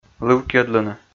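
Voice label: "лывкйӧдлыны", Komi, male; kpv audio dict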